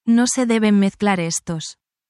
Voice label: spanish, spanish phrases

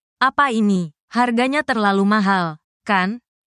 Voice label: indonesia, indonesian negative phrases

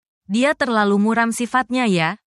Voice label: indonesia, indonesian negative phrases

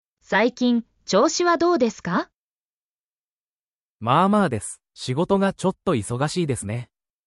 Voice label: anime, Natural Japanese Conversations

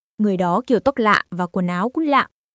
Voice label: Vietnamese; 日常の不満、怒り、批判といった感情をベトナム語でどのように表現するのか、ネガティブな感情を持つフレーズ集

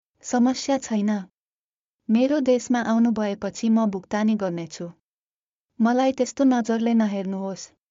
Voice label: Nepali, nepali phrases; 50 nepali phrases you can use while sightseeing or traveling part 2

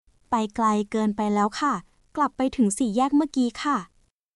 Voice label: Thai, basic thai phrases

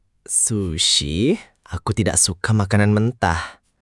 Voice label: indonesia, indonesian negative phrases

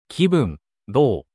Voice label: anime, japanese anime and internet slang

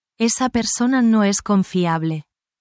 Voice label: spanish phrases, negative language; slander and gossip

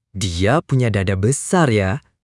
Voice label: indonesian phrases

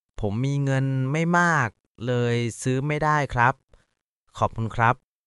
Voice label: Thai, basic Thai phrases; a list of 100 basic thai phrases you can use while traveling; タイ旅行で必須の「伝える力」を磨く！カタカナ発音で覚える基本の100フレーズ

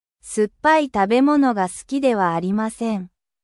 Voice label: japan

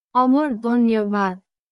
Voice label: Nepali, thank you in nepali

